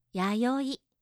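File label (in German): "yayoi", japanische Mädchennamen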